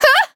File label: hit, de; Cookie Run